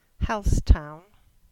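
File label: "Halsetown", English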